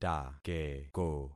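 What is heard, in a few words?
"takeko", concatenated syllables; Affixing experiment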